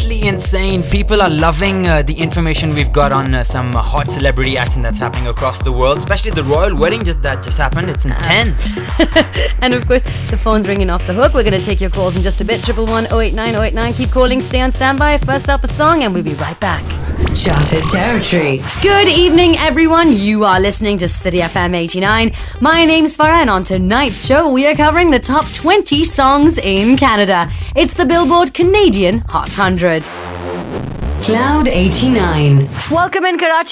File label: female, Pakistani Urdu, English with slight Pakistani accent radio